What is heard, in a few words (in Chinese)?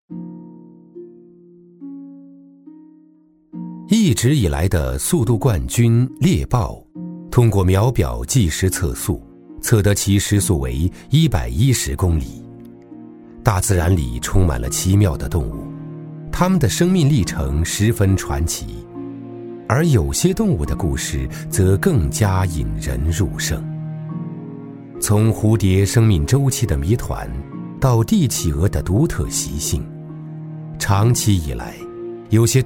男, 纪录片解说, 神秘自然, 动物世界; 中英双语; 大气浑厚